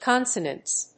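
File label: "consonants", English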